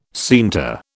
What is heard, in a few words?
"scienter", British